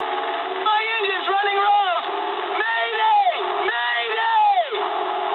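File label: English damaged engine mood high; speech; War Thunder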